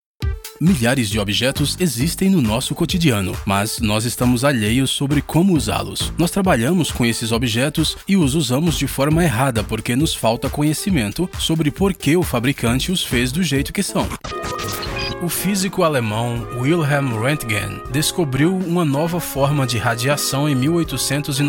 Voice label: Male, Portuguese, Brazil